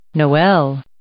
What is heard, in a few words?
"noel", English